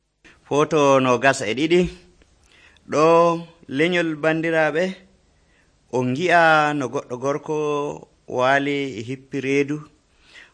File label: fulfulde, drama; fulfulde adamawa abc nt drama